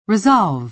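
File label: "resolve", American English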